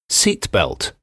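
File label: "seatbelt", English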